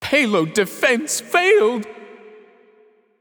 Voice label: Announcer Town Crier Match Payload Enemy Successful